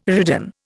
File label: "ridden", Indian